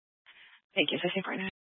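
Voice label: Sheriff Dispatch, Scanner audio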